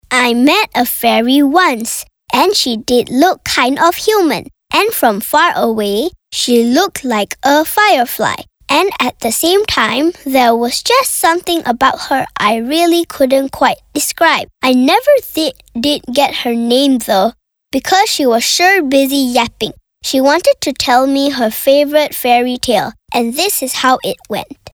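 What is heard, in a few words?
English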